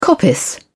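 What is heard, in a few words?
"coppice", British English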